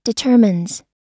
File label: "determines", us